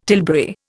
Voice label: "tilbury", Indian

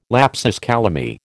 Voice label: "lapsus calami", American

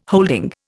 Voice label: "holding", Indian

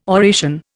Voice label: "oration", Indian

